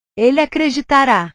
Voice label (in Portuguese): "ele acreditara", portugues